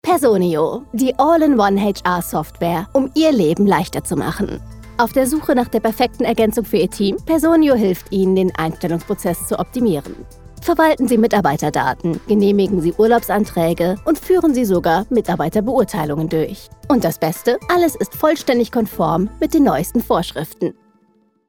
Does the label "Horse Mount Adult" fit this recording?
No